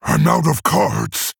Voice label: Male Orc No Cards; Thrall

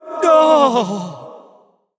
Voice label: Male Human Death

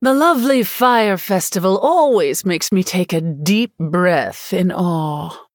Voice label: Female Human FIRE FESTIVAL

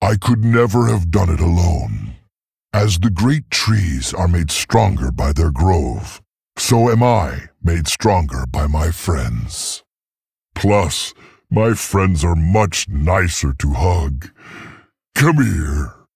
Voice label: Male Tauren; Guff; Mission8Victory

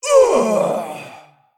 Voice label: Female Dragon Death

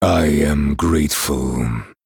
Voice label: Male Night Elf Thanks

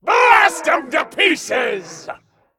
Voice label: Female Pirate Dragon Play

